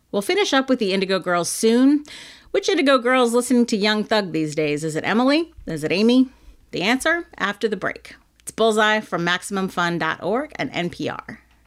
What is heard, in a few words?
announcer; bullseye with jesse thorn - npr